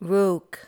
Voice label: "rook", english